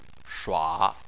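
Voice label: "shua" tone 3, Chinese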